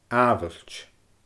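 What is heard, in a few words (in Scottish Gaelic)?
"aamhailt", Scottish Gaelic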